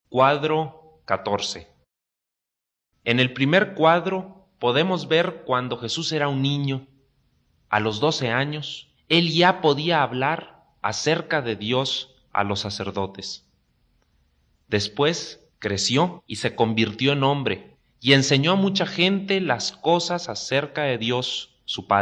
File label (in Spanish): good news spanish mexico